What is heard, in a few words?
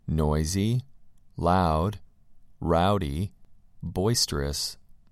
noisy loud rowdy boisterous